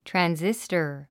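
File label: "transistor", English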